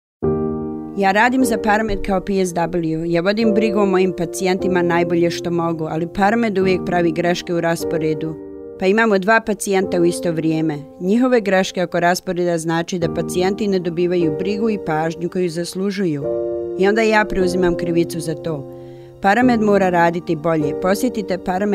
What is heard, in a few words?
Croatian; Paramed